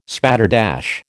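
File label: "spatterdash", American English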